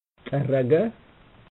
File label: "tarraga", Amharic